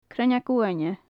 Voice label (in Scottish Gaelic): "cruinneag uaine", gaelic; emerald damselfly; cruinneagan uaine; Faclan Nàdair